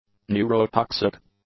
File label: "neurotoxic", english; adjectives